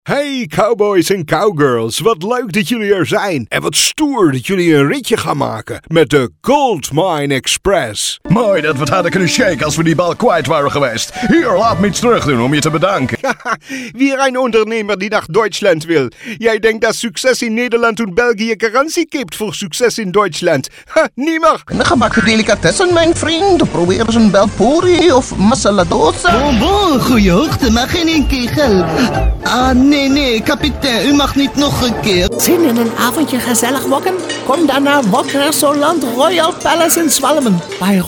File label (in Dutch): buitenlands accent